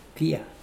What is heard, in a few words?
"kia", Mokilese